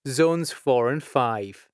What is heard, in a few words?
Zones 4+5, announcements male